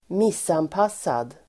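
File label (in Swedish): "missanpassad", Swedish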